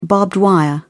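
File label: "barbed wire", British English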